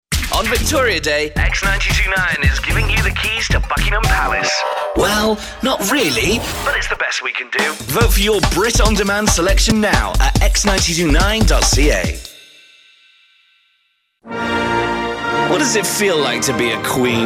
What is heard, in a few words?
Imaging VO